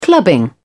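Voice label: "clubbing", English, British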